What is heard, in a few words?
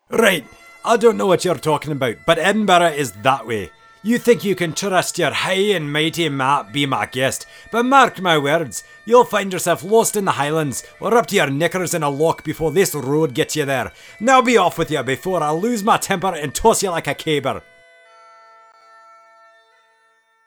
Scottish Accent